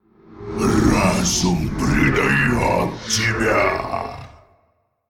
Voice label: Male Faceless Attack

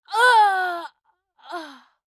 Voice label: Female Human Death; Hearthstone; датамайн обновления 5.0

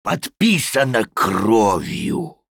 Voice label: Male Troll Attack

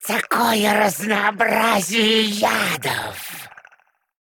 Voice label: Male Klaxxi Play; Ксарил; Hearthstone: датамайн обновления 5.0

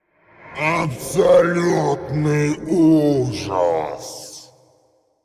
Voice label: Male Faceless Play; Hearthstone; датамайн обновления 5.0

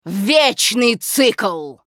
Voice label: Critical Hit, ru RU

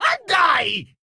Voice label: Male Gnome Attack; Hearthstone; датамайн обновления 5.0

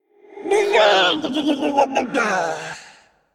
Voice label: Female Faceless Death; Hearthstone; датамайн обновления 5.0

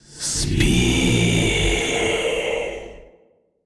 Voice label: Male Old God Attack; Hearthstone; датамайн обновления 5.0

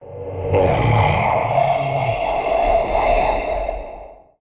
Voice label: Male Shade Death; Hearthstone; датамайн обновления 5.0

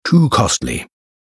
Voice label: Insufficient Funds, en US

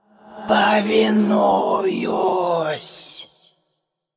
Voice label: Female Undead Attack; Hearthstone; датамайн обновления 5.0

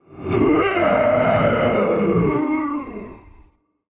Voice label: Male Elemental Death

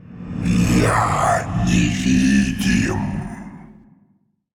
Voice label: Male Shade Attack

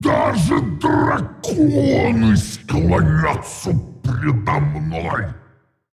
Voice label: Male Dragon Play; Hearthstone; датамайн обновления 5.0

